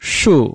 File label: "shu4", Chinese